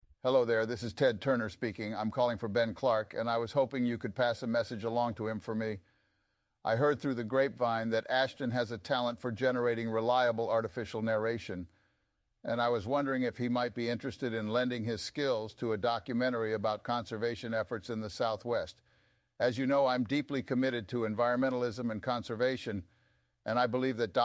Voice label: voicemail